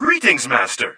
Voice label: droid voice greetings master